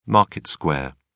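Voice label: "market square", English, British English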